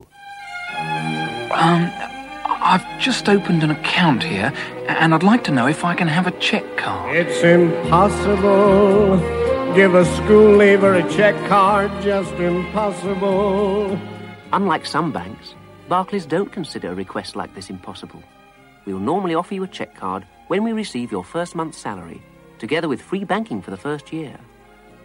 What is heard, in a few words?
Radio Advert; Radio Hallam; Barclays